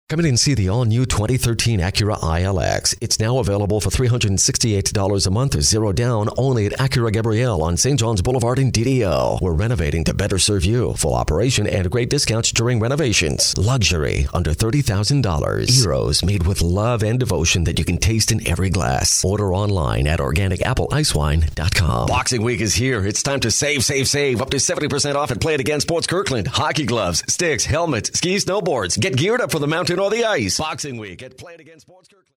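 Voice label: Canadian English, male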